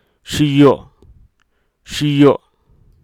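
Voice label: Hruso Aka; dog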